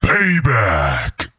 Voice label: "Payback", Announcer; liblast